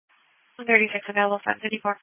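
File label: Police Patrol (Dispatch)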